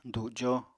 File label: "dujo", Apatani